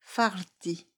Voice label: "farti", Esperanto; vortoj

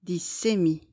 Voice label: "dissemi", esperanto; vortoj

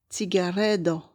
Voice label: "cigaredo", esperanto; vortoj

